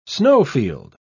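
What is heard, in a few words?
"snowfield", British English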